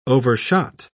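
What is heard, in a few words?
"overshot", English, British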